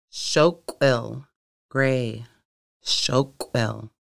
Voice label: Gray, conversational Lushootseed

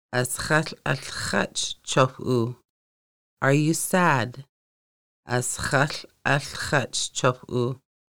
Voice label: Are you sad, conversational Lushootseed

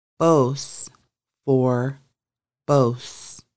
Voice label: Four, conversational Lushootseed; Conversational Lushootseed: Lessons 1-5

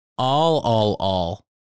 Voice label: houses, Lushootseed; storytelling

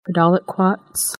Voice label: "seeds", Lushootseed

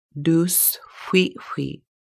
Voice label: hunter, Lushootseed

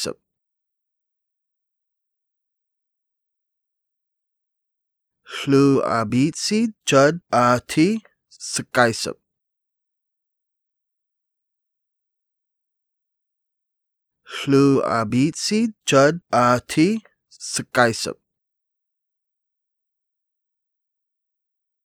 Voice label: I will give you flowers, Lushootseed; ɬuʔabyicid čəd ʔə ti c̓qaysəb

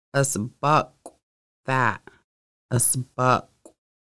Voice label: fat, conversational Lushootseed

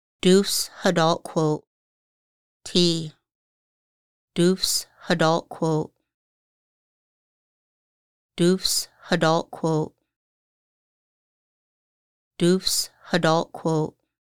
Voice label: Tea "dxʷhədalqʷuʔ", Lushootseed; traditional foods and healing

